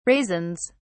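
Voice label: "raisins", British English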